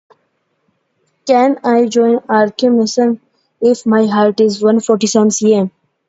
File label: voice message